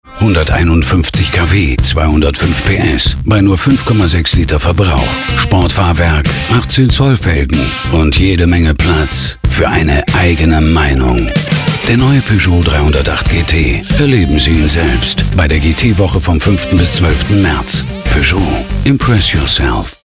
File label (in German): Funk, sprecher radiowerbung; Peugeot 308 GT